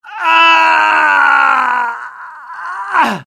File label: death, UK; dialogue; mohaa